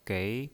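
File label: "gei", Mandarin Chinese, second tone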